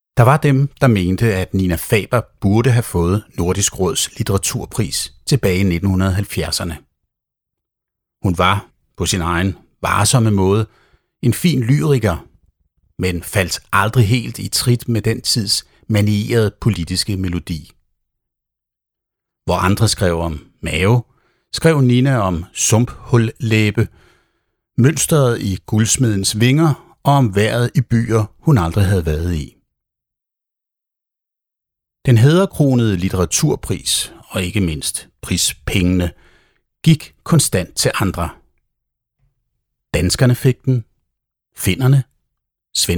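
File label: Danish Audiobook Male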